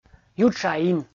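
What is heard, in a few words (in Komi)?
"ютшаин" Komi, male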